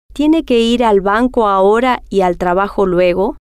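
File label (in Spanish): tiene Que Ir Banco Ahora Trabajo Luego, spanish, female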